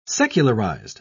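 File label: "secularized", British English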